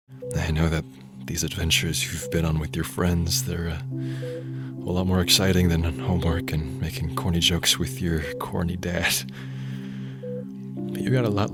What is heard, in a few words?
Voiceover, Audio Books; New York